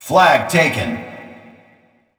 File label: vce flag taken